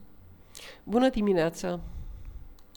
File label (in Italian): saluti, rumeno; frasi utili